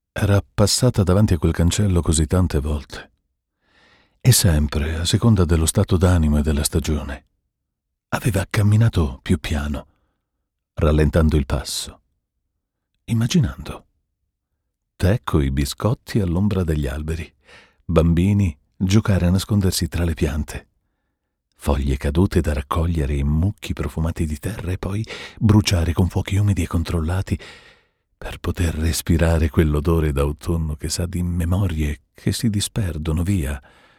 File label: ITA audiobook soft